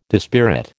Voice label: "dispirit", American